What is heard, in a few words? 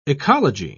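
"oecology", English, British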